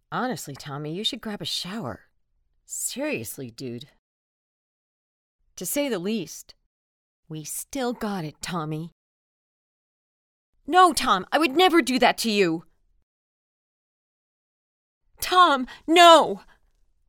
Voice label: Voice of Mystery and Danger